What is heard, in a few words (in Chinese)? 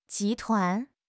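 "集团", Chinese; HSK6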